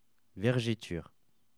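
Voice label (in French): "vergeture", French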